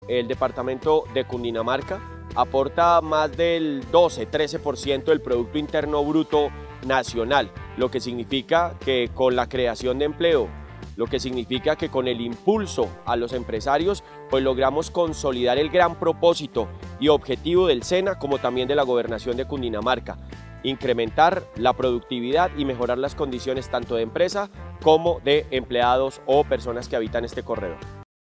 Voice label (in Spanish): Voz Gobernador